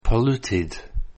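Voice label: "polluted", English word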